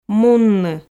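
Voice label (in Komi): "мунны", Komi, female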